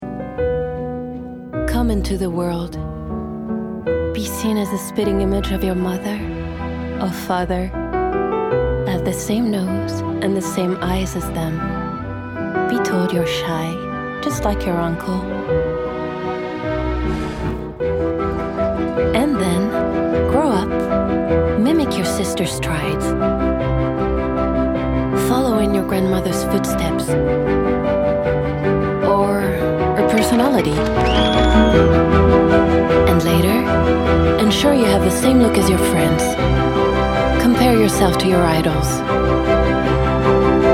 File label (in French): Anglais